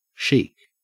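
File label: "chic", Australian English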